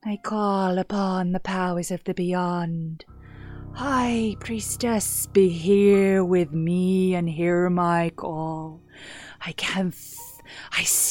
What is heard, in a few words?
Spellcasting character, en-NZ